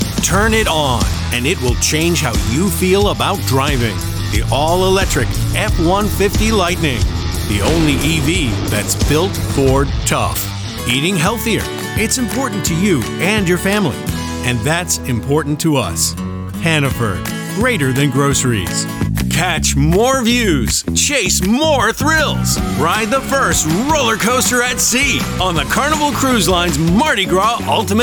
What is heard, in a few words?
Commercial Voiceover